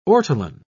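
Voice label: "ortolan", British English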